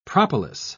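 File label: "propolis", British English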